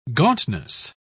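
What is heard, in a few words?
"gauntness", British English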